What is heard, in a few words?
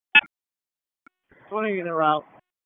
FIRE OPS, p25